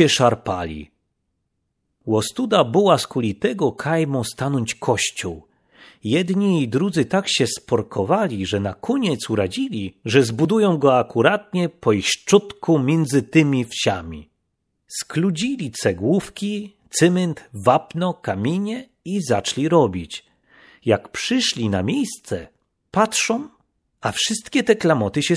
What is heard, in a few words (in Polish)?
rak wersja po slasku